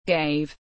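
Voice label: "Gave", English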